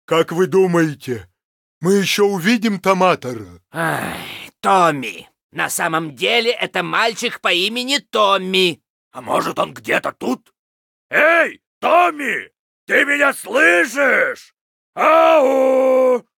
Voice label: Pissed; LostVikingsBase

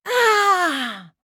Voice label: Female Human Death

